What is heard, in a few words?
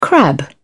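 "crab", British English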